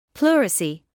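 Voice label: "pleurisy", British English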